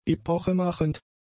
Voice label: "epochemachend", German; adjectives